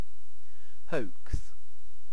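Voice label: "hoax", British English; pronunciations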